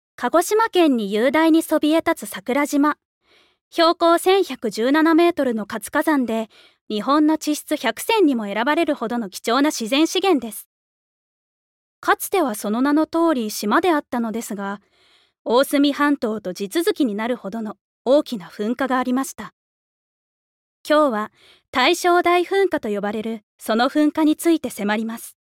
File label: Narration CM